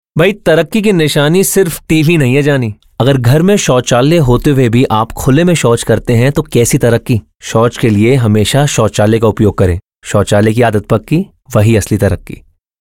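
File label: Male Voice Over Hindi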